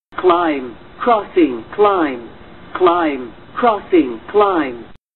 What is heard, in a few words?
Female Climb Crossing Climb